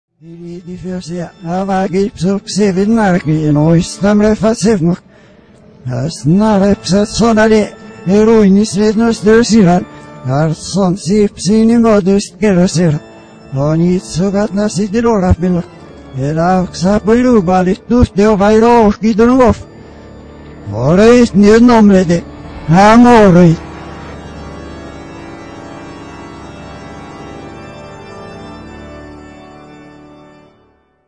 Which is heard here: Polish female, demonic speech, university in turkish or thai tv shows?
demonic speech